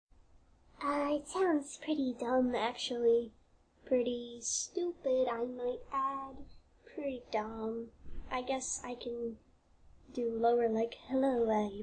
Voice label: Normal voice; Fluttershy